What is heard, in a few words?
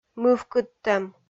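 "мывкыдтӧм", Komi, female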